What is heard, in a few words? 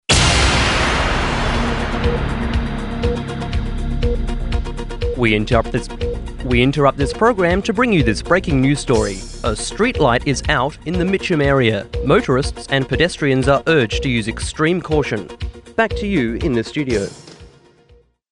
Breaking News Street Light